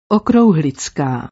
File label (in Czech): "okrouhlicka", Praha zastavky